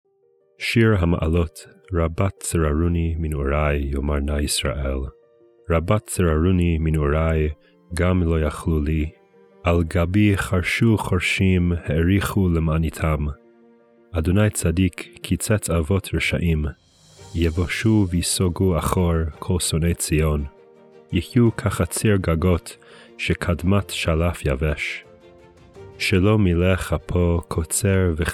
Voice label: poetry and prayer psalm hebrew